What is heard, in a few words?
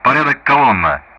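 Speech Russian; DCS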